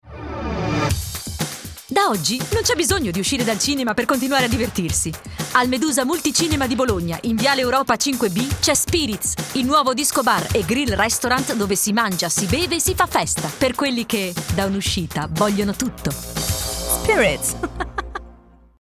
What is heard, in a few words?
italian female commercial